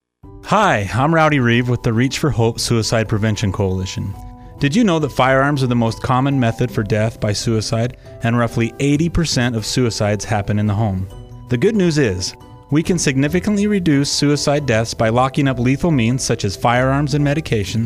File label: Radio Ad